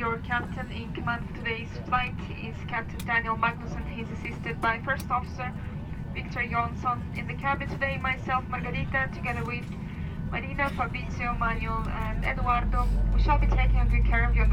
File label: Welcome Message Inside Plane